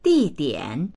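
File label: "di4dian3", Mandarin Chinese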